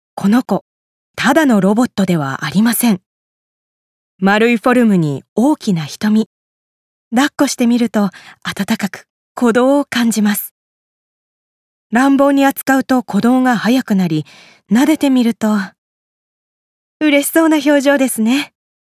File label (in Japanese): 紹介ナレ